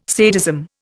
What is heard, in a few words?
"sadism", Indian